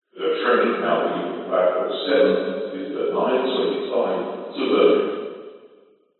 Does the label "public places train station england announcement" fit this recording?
Yes